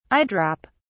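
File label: "eye-drop", British English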